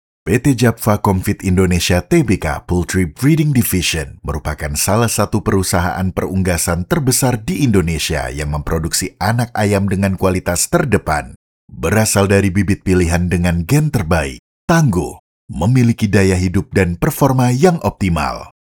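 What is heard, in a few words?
FORMAL INDONESIA, has a deep voice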